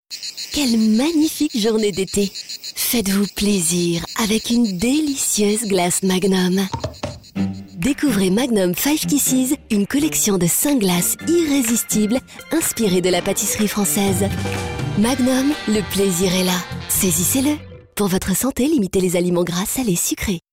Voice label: gourmande, voix; Magnum

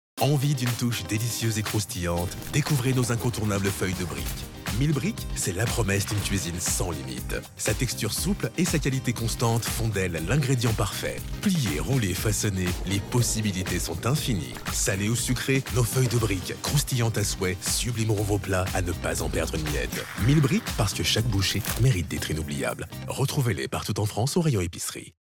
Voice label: Pub Tv

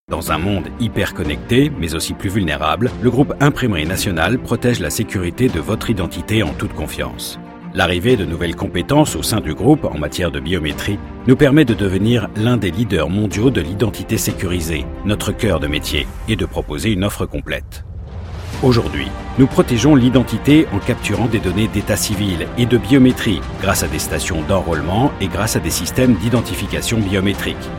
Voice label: Voix Off Motion Design Droit Sérieux; Imprimerie Nationale

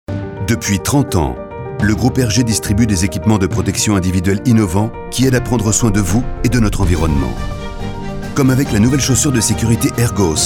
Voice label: pub tv

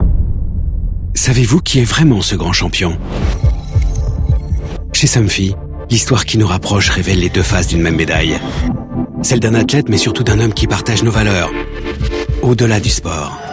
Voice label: pub TV; Somfy; Biathlon; Fourcade; Sport Passion

